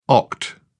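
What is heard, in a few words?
"oct", English, British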